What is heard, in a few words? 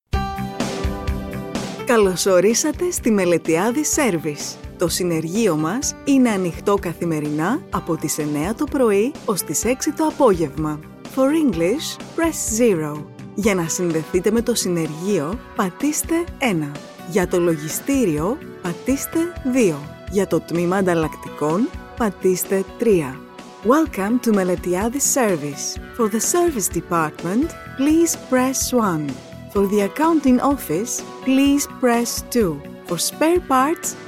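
Greek, female, IVR; voice over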